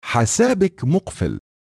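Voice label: Account Locked, Arabic; AXEFIAudio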